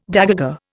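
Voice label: "dégagé", Indian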